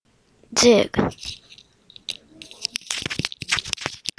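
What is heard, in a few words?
"jig", spoken word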